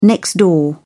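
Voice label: "next door", British English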